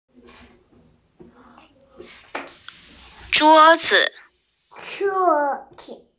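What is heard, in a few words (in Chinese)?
"zhuo1 zi5" Mandarin Chinese, female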